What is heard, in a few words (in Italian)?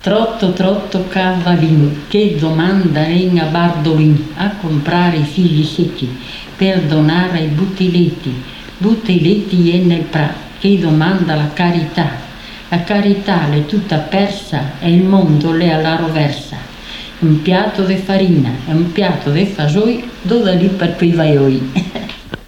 filastrocca; basso veneto; trotto trotto cavallin